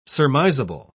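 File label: "surmisable", British English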